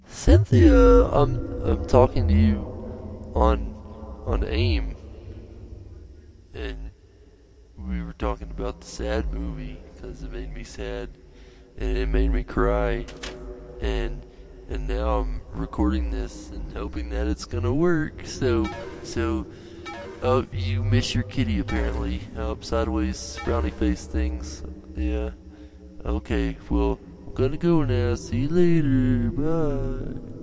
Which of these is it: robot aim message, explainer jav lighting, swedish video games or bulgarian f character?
robot aim message